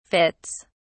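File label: "fits", British English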